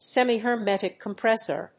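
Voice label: "semi-hermetic compressor", English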